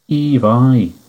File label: good night, manx